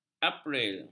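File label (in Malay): "april", Malay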